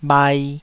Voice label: "bhai2", taiwanese